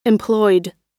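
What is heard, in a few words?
"employed", American English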